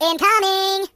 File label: "Incoming", Singer; hedgewars